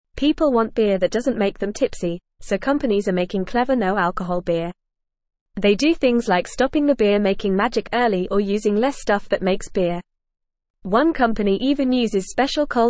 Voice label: English Newsroom Beginner FAST Reading; Companies Make Clever No Alcohol Beer for Everyone